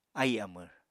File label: "ahì amvr", Apatani